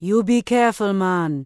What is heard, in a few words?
Troll Female Laid Back Farewell; NPC